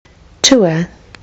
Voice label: "tour", Kiwi English